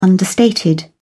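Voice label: "understated", English, British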